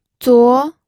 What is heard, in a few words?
"zuo2", pinyin